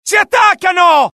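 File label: alert, Italian; mohaa; dialogue; sighted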